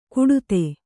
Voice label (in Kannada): "ಕಡೆತ", Kannada